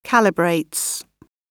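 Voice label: "calibrates", British English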